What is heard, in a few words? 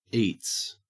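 "eats", Australian English; pronunciation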